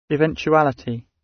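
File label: "eventuality" British; Space, the planets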